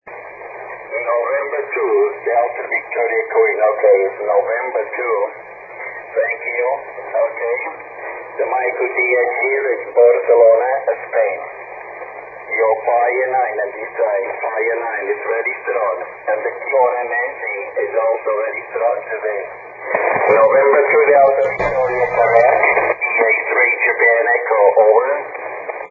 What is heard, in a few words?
80m ssb; SSB-SP